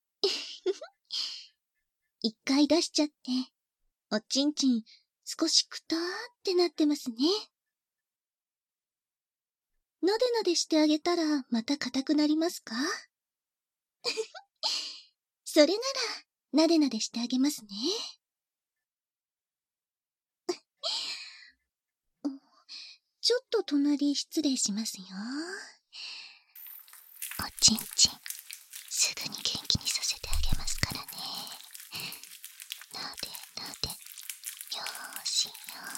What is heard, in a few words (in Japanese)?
バイノーラルマイク収録 効果音あり大きめ; 焦らし手コキ; 効果音大差分